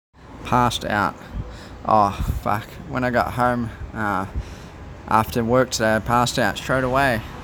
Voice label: "Passed Out", New Zealand; common New Zealand slang words and phrases